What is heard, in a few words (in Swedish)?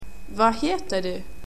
"vad heter du", swedish, Greetings